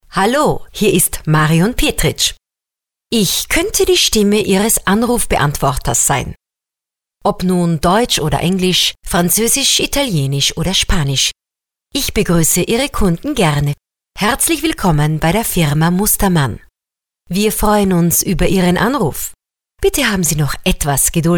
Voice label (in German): Sprecher Telefonansagen